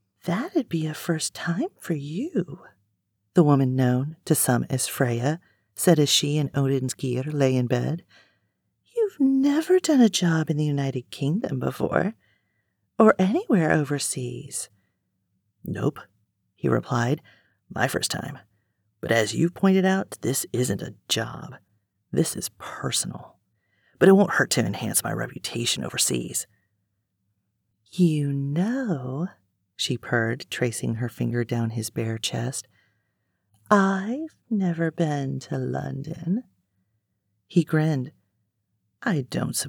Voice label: Villians Plotting, Professional Female Voice Talent